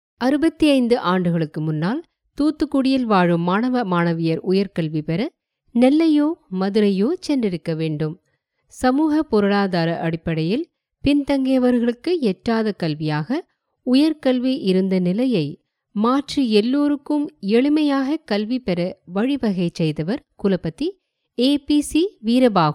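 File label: Tamil Sri Lanka female voice over, narrative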